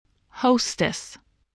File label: "hostess", voice spelling